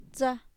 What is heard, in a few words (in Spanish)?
gajo de naranja, Mazateco; INALI